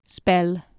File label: "spell", Anglo Saxon